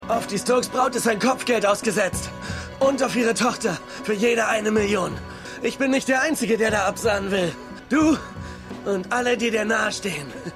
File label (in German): Mann Muetze; Luke Cage